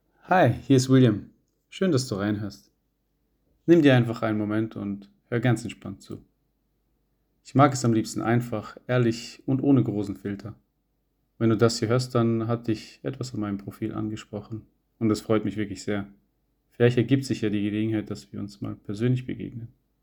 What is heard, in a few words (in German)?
Escort Mann Audiovorstellung; Zürich